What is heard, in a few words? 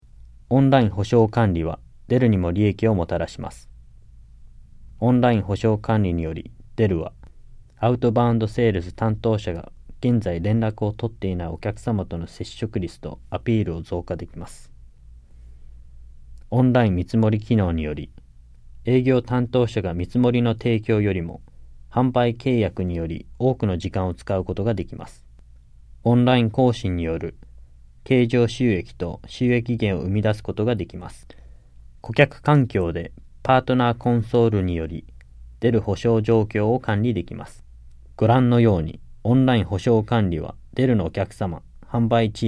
JAPANESE; delltranslations